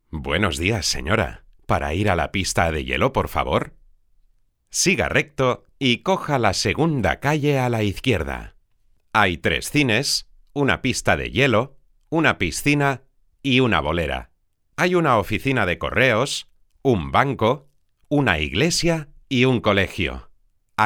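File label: Spanish voice over, Curso formacion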